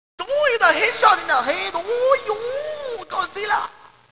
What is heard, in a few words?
headshot japanese; svencoop